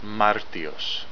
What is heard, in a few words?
March, Greek